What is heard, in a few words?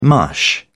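"mush", British English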